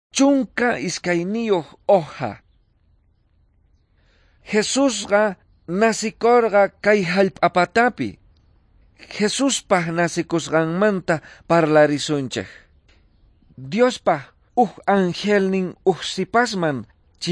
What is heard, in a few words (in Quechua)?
quechua bolivian cochabamba; good news